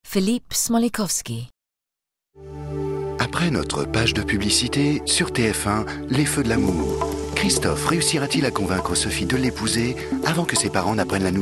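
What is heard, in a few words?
French, English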